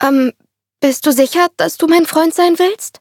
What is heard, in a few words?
loading, de; cookie run